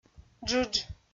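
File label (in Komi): "джыдж", Komi, female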